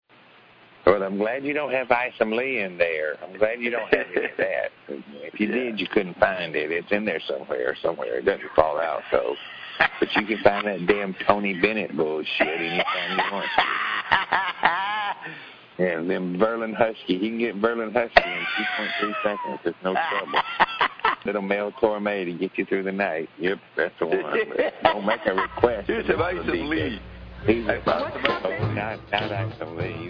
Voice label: rant